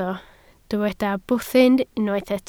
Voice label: "bwthyn", Welsh